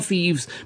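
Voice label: "thieves", Standard Southern British English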